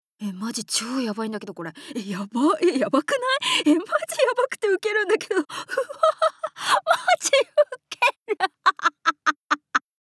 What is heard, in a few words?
School girl